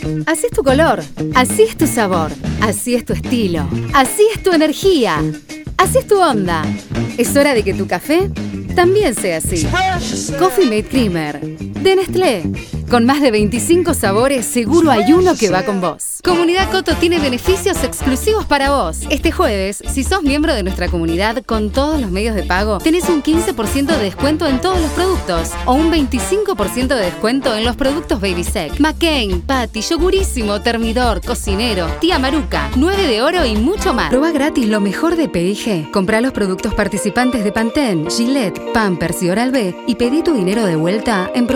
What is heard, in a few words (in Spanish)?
voces masculinas y femeninas